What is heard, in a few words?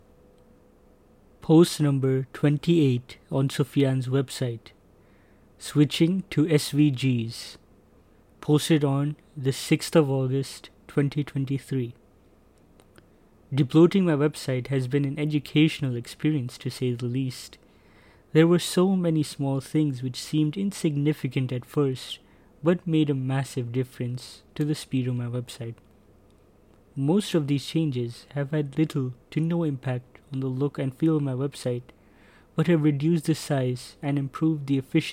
voiceover